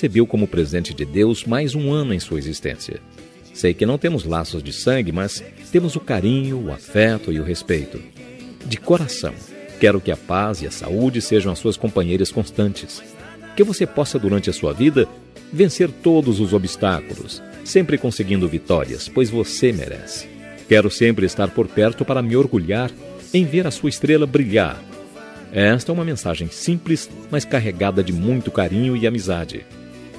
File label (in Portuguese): enteada masc, Aniversário de Enteada – Voz Masculina; Telemensagens para todas as ocasiões